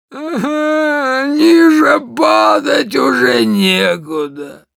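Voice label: Demoman jeers, Russian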